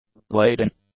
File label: "laid-in", english; adjectives